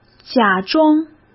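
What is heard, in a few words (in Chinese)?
"假装", Mandarin Chinese; hsk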